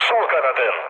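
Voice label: left wing, mood low, Turkish; War Thunder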